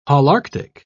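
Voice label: "holarctic", British English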